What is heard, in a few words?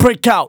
phrase male rap; Vengeance Samples